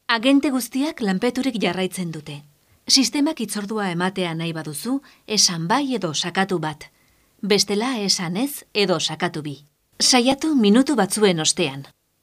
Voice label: locuciones centralitas IVR locutora euskera; Osakidetza

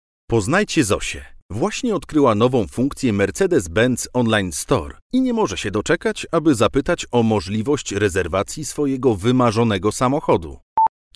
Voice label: locutor polaco polish voiceover; locutores polacos; Locutores de Polonia

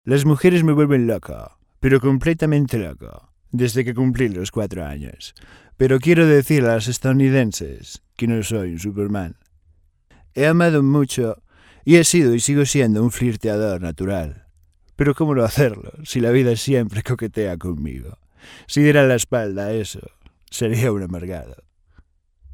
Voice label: imitacion julio iglesias, imitadores

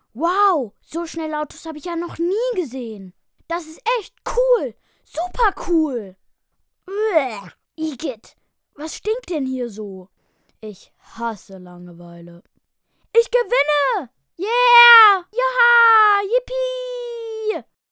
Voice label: locutor niño aleman german child voiceover, locutor juvenil alemán

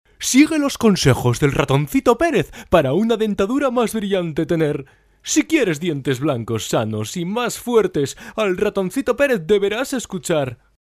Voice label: voz dibujos ratoncito; locutor imitador

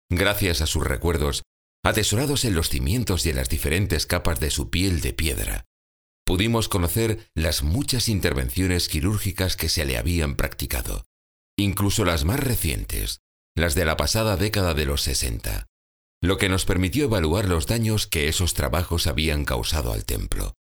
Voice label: locutor voiceover audioguide audioguia

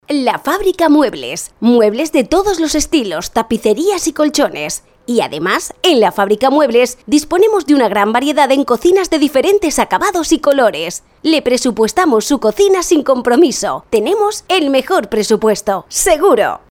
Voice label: locutora

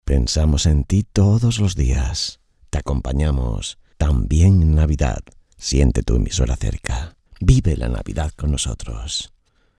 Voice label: navidad indicativo radio television voiceover

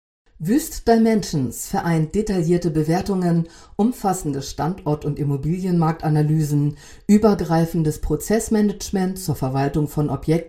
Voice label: locutora alemana german voiceover

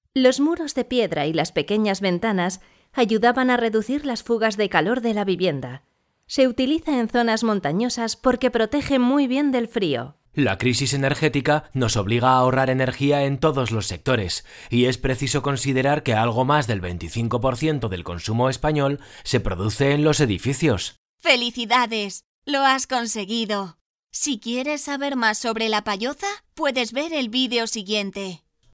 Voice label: locuciones online webs voiceovers; Locución para la web

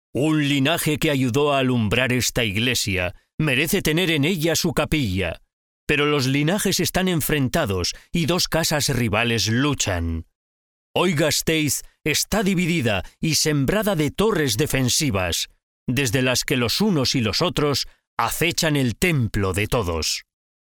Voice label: locutor spanish voiceover audioguide audioguia, locución audioguía turística; Locución audioguía turística para Vitoria Gasteiz

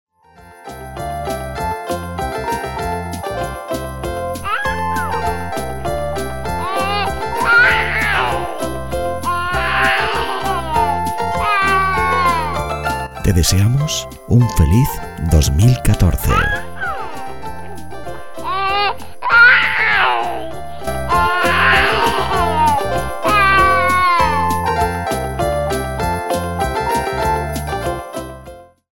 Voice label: feliz 2014 locutores voiceovers navidad; Jingle de voz para felicitar el año nuevo

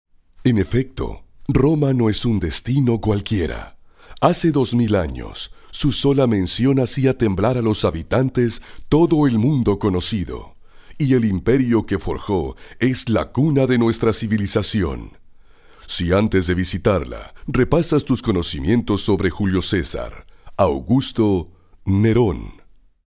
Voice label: locutor mexico mexican voiceover; locutor mexicano

